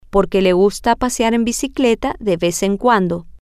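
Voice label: because she likes to go bike riding once in a while, Spanish, female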